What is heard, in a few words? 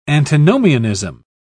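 "antinomianism", British English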